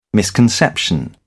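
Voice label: "misconception", British English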